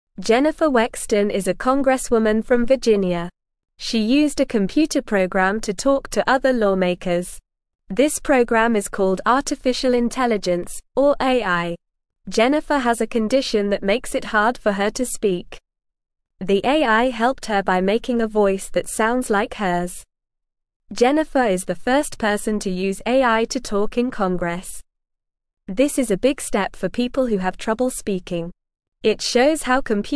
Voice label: English Beginner NORMAL Reading; Jennifer Uses AI to Talk in Congress